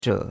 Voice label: "zhe3", chinois; Cours-de-Chinois